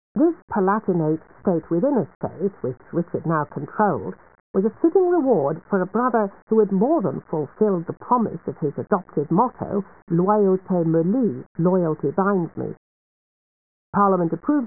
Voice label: talk mono 8bit; 15secs